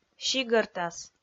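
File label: "сигӧртас", Komi, female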